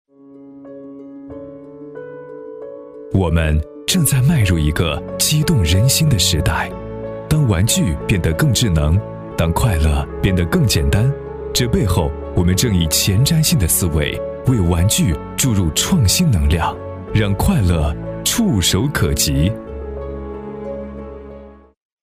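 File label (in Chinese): 男国大音-产品宣传片-科技感; 思成智能机器人玩具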